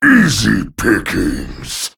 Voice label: Male Felguard Attack

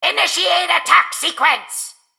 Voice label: Male Mechagnome Emote Attack

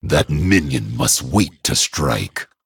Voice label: Male Dragon ERROR SUMMON SICKNESS

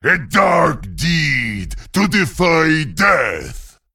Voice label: Male Vrykul Play

